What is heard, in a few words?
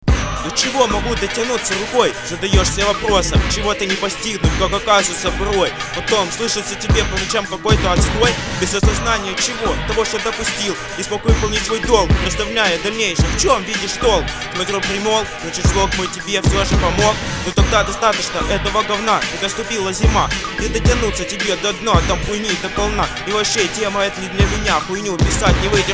hip-hop battle; breakdanceru